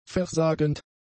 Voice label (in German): "versagend", german; adjectives